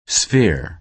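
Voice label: "sphere", American English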